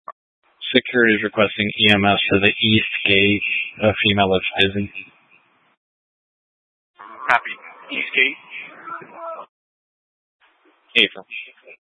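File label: Incident Tactical 3, Scanner audio recording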